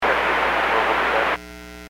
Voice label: Aviation 121.5 Emergency

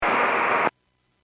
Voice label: Airports 122.7, Radio; KSTE

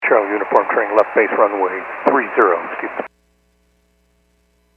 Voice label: Aviation 122.7; KSTE

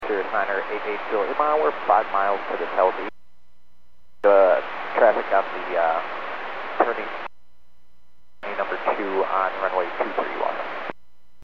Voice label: Aviation 122.7; KSTE

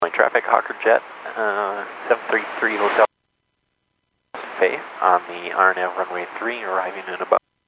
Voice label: Airports 122.7, Radio; KSTE

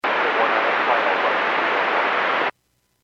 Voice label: Airports 122.7, Radio; KSTE

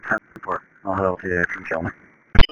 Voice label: Police Sheriff Dispatch

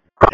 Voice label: Police Dispatch; 155.67 Stevens Point PD

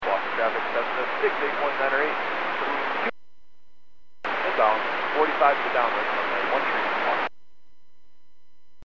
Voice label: Aviation 122.7; KSTE

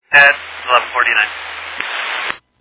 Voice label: Fire Dispatch

